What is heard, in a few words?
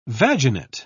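"vaginate", British English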